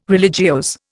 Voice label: "religiose", Indian